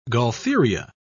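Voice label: "gaultheria", British English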